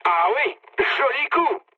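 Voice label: French player killed enemy mood med; War Thunder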